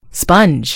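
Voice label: "sponge", American English; gcompris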